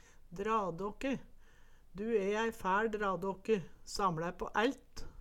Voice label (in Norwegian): "dradokke", Numedalsmål; Ordet er og bruka i tydinga ei som drar seg, er lat